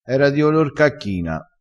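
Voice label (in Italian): "cacchina", dialettale crespinese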